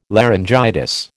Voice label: "laryngitis", American